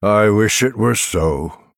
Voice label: Male Night Elf greetings

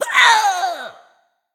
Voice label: Female Nightborne Death

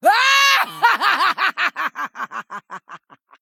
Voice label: Female Troll Attack

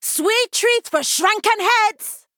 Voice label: Female Troll Play

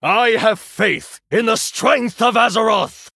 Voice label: Male Human Play

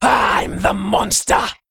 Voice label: Female Worgen Attack

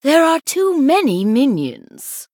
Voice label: Female; Sanlayn; ERROR FULL MINIONS

